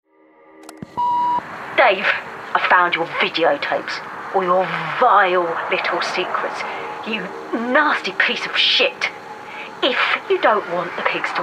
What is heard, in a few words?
Gaming Criminal London; Gaming Character – Criminal London